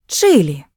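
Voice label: "chile", British; gcompris; geography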